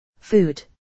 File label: narration